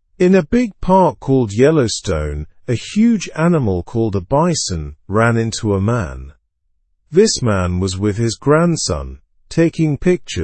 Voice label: english news beginner slow; bison hits man in yellowstone